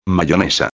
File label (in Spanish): "mayonesa", espanhol; palavra do dia